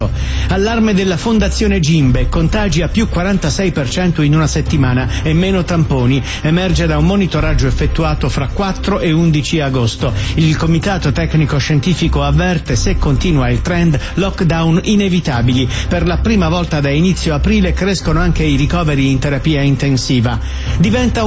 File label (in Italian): RADIO; R101; Allarme della Fondazione Gimbe